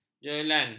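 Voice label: "jelan", Malay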